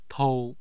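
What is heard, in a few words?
"tou1", Mandarin Chinese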